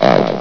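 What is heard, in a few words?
"out", announcer voice; Source Engine; bshift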